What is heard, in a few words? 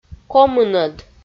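"комынӧд", Komi, female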